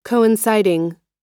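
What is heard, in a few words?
"coinciding", American English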